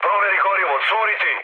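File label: Serbian tank damaged mood high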